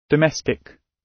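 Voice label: "domestic", British English; Design and innovation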